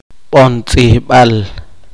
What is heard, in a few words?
crayon, poqomchi